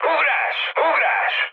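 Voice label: Hungarian bailout mood high, speech; War Thunder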